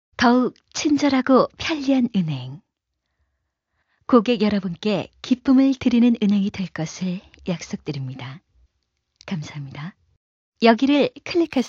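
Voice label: Korean female; ARS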